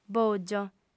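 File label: "bògio", female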